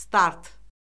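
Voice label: "start", Azerbaijani; Orfoqrafiya Lüğəti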